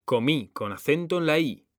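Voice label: "COMÍ", Spanish; mekanta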